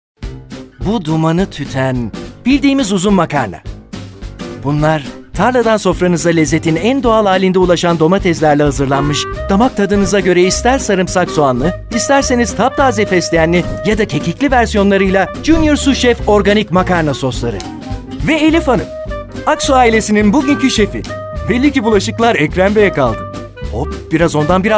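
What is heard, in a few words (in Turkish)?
reklam sıcak samimi güven veren bilgilendirici, Turkish; jr sous chef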